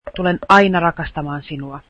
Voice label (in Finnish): finnish I will always love you, Finnish